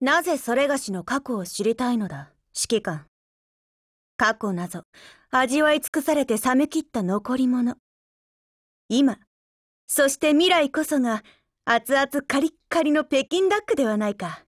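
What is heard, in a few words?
DIALOGUE, Japanese; QBZ191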